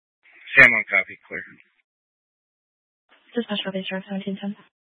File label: Fire Department Dispatch; Grand Junction Fire Department Dispatch